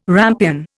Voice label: "rampion", Indian